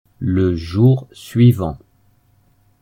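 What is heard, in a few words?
"le jour suivant", French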